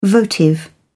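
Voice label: "votive", British English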